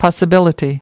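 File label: "possibility", English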